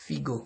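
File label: "figo", Nigerian Pidgin, male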